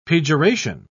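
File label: "pejoration", English, British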